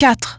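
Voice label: "four", English; iOS 4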